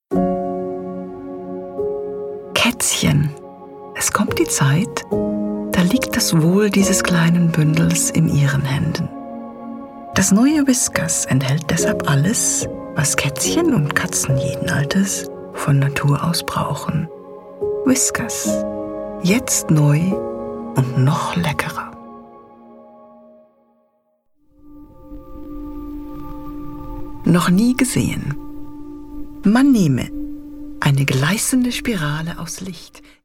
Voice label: German